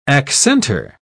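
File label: "accentor", English, British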